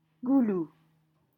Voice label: "goulues", French